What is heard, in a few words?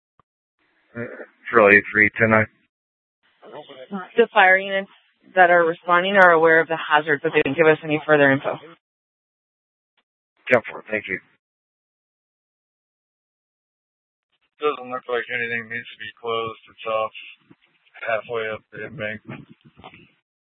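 police dispatch, Scottsdale PD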